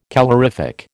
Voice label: "calorific", American